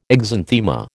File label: "exanthema", American